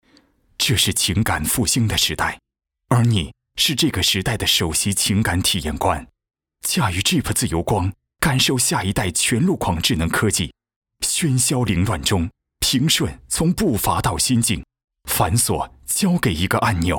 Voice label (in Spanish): Locutor varón chino